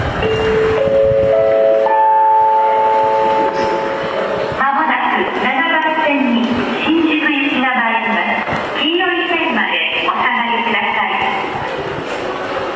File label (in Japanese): 到着放送; 埼京線; 池袋・新宿方面